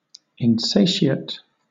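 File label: "insatiate", British English; pronunciations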